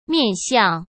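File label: "面向", Mandarin Chinese; chinese flashcards; hsk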